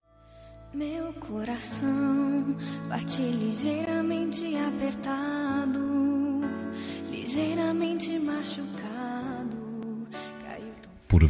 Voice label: Romântica pra Esposa, M

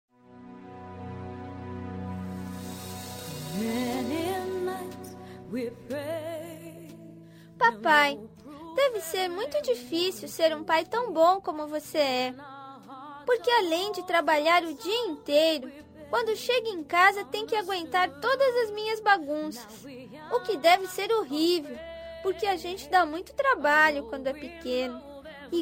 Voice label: Dia dos Pais M Infantil, telemensagens

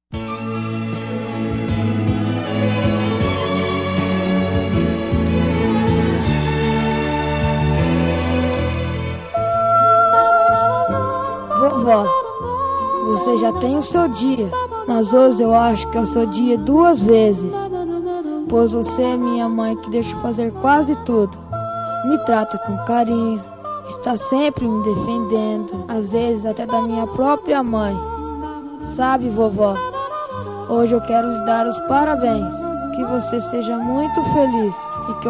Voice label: Dia das Maes pra avo, M infantil

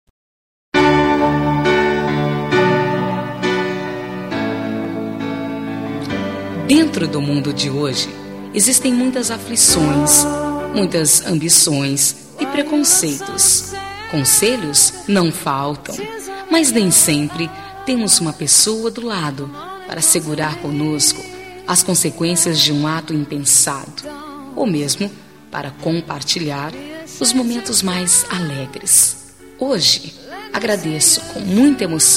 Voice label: Amizade Mensagem pra Amizade F

